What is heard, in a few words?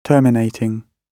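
"terminating", British English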